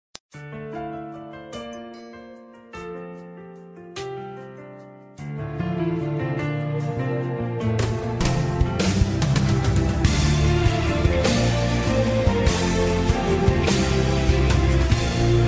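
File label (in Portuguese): neutra gospel, Aniversário Pessoa Especial – Voz Feminina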